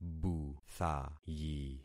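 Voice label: "buthayi", concatenated syllables; Affixing experiment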